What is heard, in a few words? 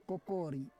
"kokori", Roon